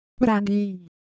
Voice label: Ewe Texture, IdeoPhones

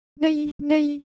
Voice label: Korean, Ideo Phones; Motion

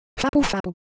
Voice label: Japanese Motion, Ideo Phones

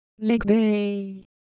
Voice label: Ewe, ideophones; Shape

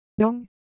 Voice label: Japanese, Ideo Phones

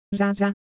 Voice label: Japanese Sound, ideophones

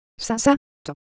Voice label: Japanese Motion, Ideo Phones

